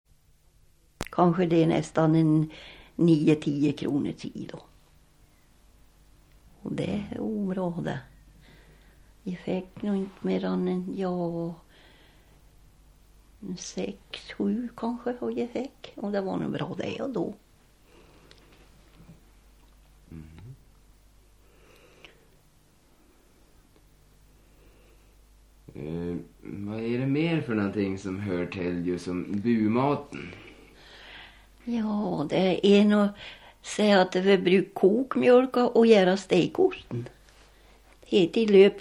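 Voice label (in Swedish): Revsund Jämtland, dialekter; Folkminnen; Svenska sagor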